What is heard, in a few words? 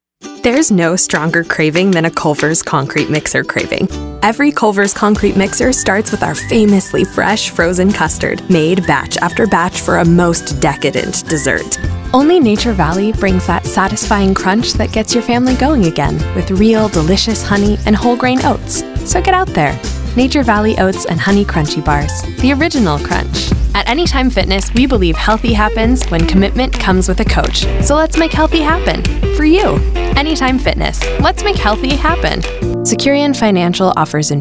Commercial; Demo 60